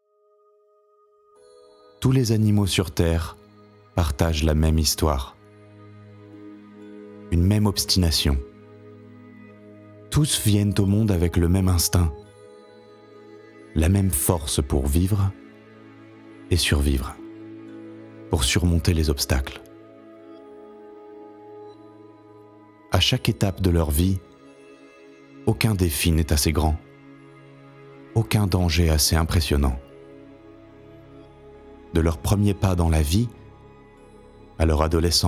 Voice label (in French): Docu animalier